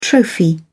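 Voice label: "trophy", British English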